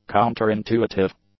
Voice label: "counterintuitive", English; adjectives